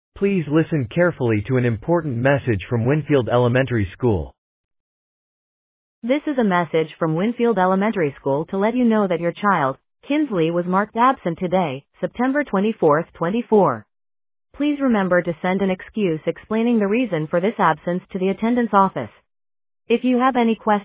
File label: Voicemail